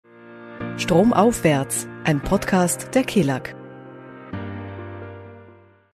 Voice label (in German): Podcast Intro; Kelag